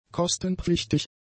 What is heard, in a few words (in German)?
"kostenpflichtig", German; adjectives